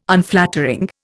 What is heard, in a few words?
"Unflattering", Indian